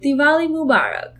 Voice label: happy diwali, gujarati